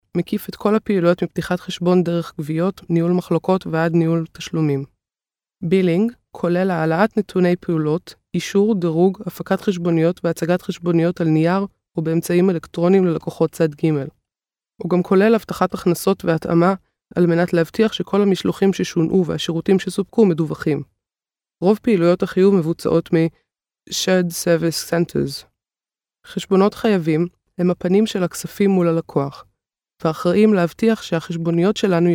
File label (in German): Nachvertonung Film Sprecherin Hebräisch